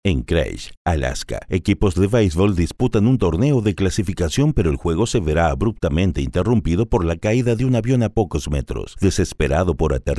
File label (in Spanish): Documental